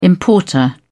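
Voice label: "importer", British English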